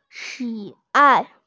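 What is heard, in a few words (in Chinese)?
"xi3ai4", Mandarin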